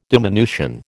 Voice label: "diminution", American